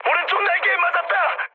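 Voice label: Korean right wing mood med, speech; War Thunder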